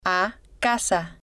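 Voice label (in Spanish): a Casa, spanish, female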